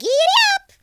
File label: "hurry", Cowgirl; speech